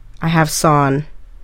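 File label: "I have sawn", English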